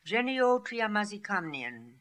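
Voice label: "Geneotriamazikamnian", pronunciations; Fourth Way; Beelzebub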